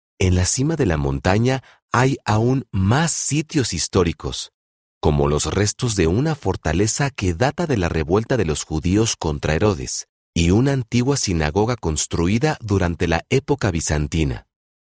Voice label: spanish latin american documentaries

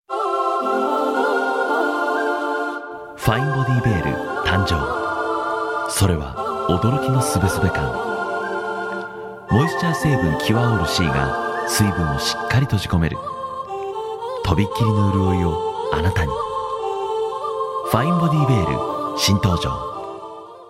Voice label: japanese commercial; japanese voice over artists

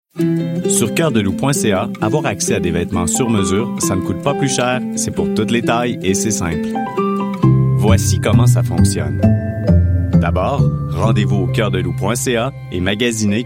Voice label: french canadian e-learning

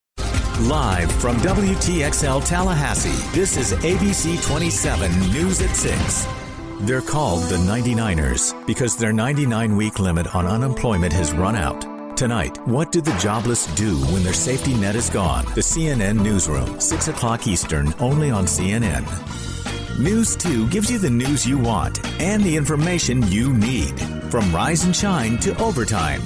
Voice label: english american promos; english american voice actors

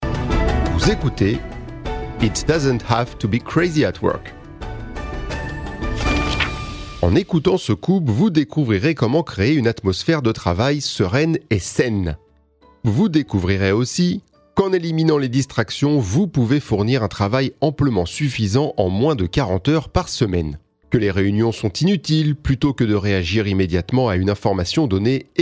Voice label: french audiobooks

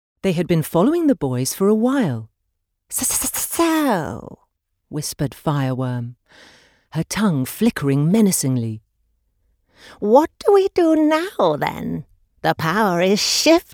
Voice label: english british audiobooks; english british voice actors

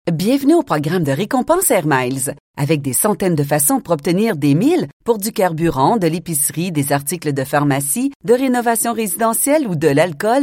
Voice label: french canadian commercial

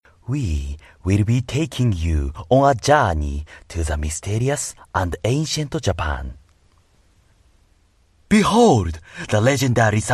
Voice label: english others

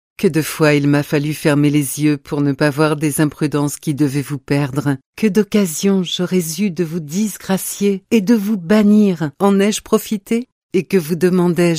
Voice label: french audiobooks

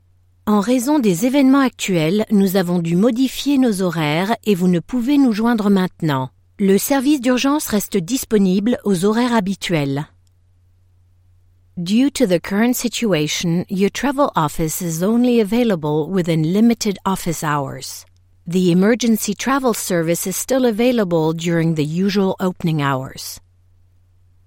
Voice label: french corporate videos

